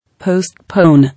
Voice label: "Postpone", English

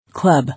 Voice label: "Club", English

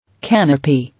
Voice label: "Canopy", English

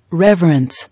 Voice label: "Reverence", English

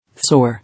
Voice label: "Sore", English

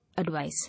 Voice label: "Advise", English

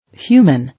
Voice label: "Human", English

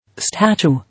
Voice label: "Statue", English

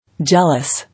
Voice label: "Jealous", English

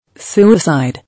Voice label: "Suicide", English

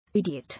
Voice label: "Idiot", English

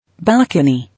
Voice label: "Balcony", English

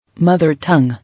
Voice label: "Mother-tongue", English

